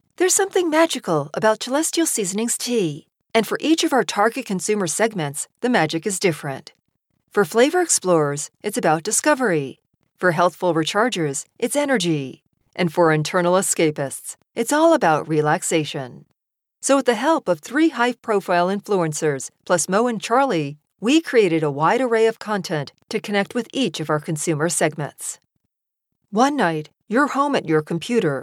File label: Explainer Video